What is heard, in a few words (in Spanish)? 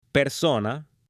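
"persona", Spanish, female; AppLenguaje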